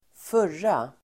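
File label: "förra", Swedish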